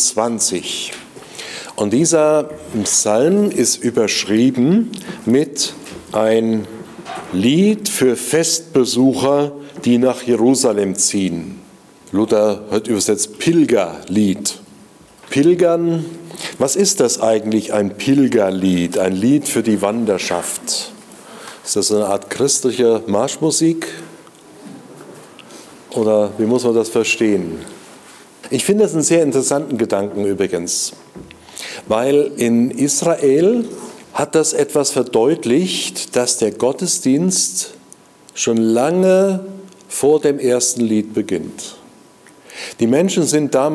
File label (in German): Predigt; Worauf warten wir